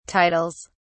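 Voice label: "titles", British English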